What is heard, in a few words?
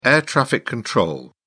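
"air traffic control", British English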